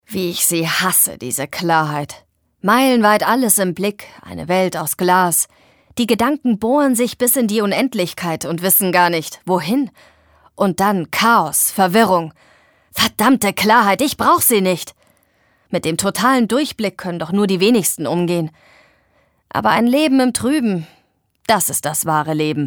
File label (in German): Schauspiel Energisch